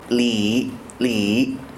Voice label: "li" male

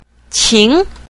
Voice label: "qing", female

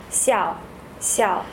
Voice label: "xiao" female

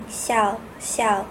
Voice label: "xiao", female

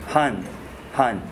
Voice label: "han", male

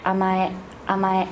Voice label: "amae" female

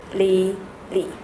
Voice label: "li", female

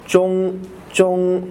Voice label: "zhong" male

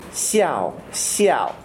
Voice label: "xiao" male

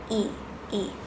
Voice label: "yi", female

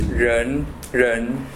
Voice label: "ren", male

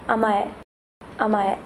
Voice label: "amae", female

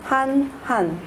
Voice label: "han", female

